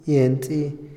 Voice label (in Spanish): empujar, Otomí, hombre; Movimiento; INALI